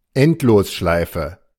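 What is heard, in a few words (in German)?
"Endlosschleife", German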